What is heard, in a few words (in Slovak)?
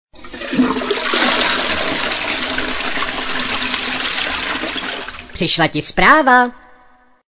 sms hlásky cz